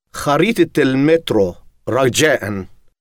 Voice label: subway map Arabic